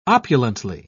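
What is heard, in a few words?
"opulently", British English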